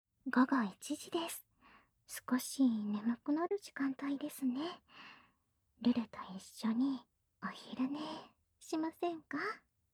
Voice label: 時報ボイス 午後1時です; 好感MAX従順奴隷メイド~甘トロ媚び媚び奉仕~

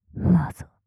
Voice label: "マゾ" 強く・極近・右

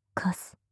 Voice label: "カス" 極近 右, ASMR

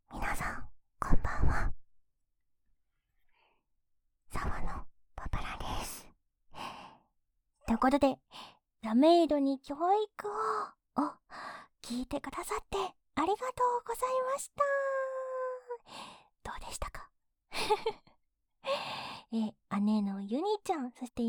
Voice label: フリートーク; ダメイドに教育を

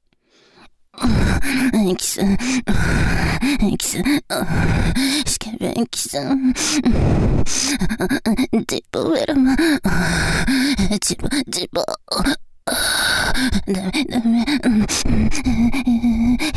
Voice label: 体育後のスケベエキスを食べちゃうッボーナスタイムぅぅう 右 淫語連呼, ASMR; ギャルフェラッ!!学校は援交ギャル風俗店ッ!!

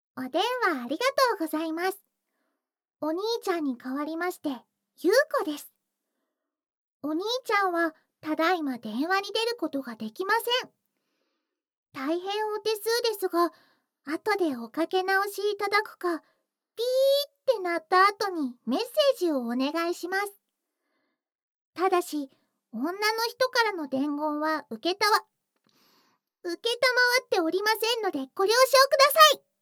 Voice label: 留守電; 全肯定ロリママのあまあまでえっちな添い寝; いもおかASMR

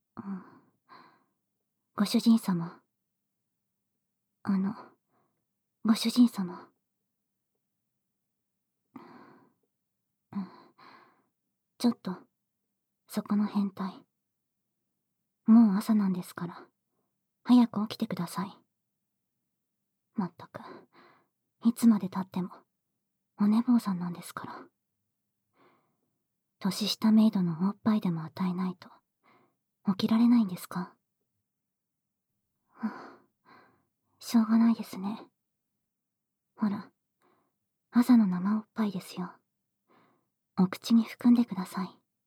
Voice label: ラブラブ目覚まし音声; アンのラブラブ目覚まし音声♪

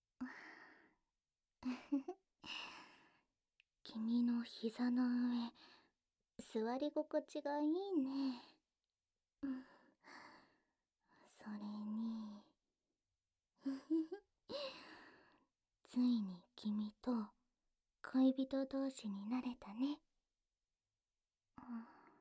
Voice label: ピロートーク, ASMR; SEなし

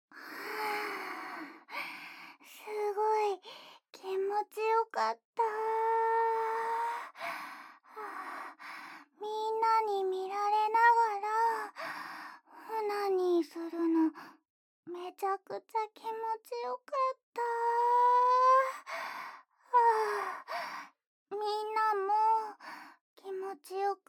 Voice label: オナニー後ピロートーク; NoSFX

